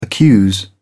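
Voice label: "accuse", British English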